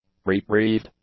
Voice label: "reprieved", English; adjectives